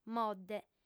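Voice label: "modde", female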